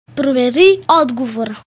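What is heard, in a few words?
check answer, Chinese; gcompris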